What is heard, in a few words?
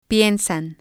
"piensan", spanish